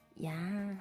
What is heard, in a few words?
iyaaa